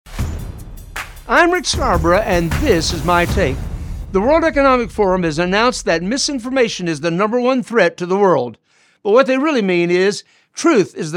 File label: Radio Spot; Pastor Bootcamps